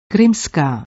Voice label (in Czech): "krymska", Praha zastavky